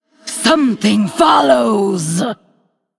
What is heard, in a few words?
Female Undead Attack